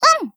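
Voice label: AGREE, Japanese; 97type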